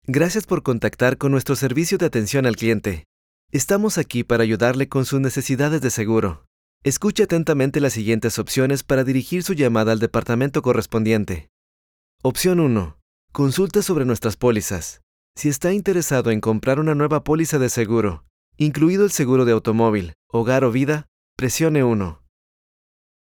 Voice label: spanish latin american ivr